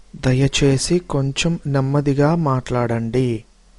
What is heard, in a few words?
slowly, telugu